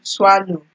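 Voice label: "swallow", Nigerian Pidgin, female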